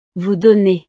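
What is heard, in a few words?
"vous donnez", French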